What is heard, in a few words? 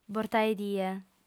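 "bortaedie", lingua sarda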